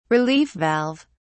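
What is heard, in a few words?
"relief valve", British English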